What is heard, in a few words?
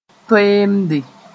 "toeemde", moore